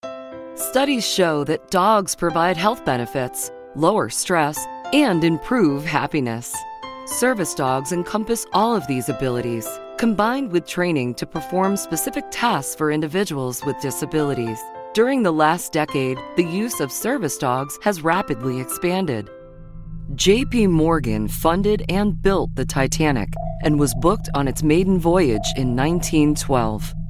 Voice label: Narration